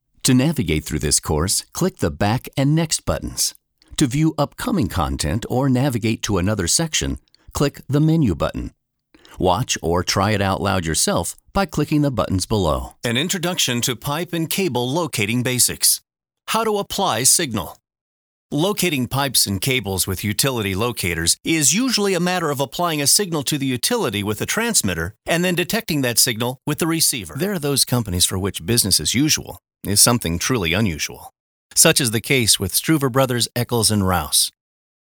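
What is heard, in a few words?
us elearning, American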